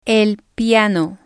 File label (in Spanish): "el piano", Spanish